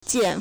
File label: "jian3", Chinese